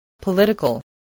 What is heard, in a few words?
"political", English